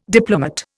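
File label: "diplomate", Indian English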